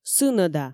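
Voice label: "сынӧда", Komi, female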